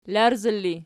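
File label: "larzala" ergative, Archi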